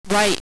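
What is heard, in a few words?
"right", male radio voice; female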